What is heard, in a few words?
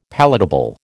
"palatable", American